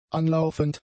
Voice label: "anlaufend", German; adjectives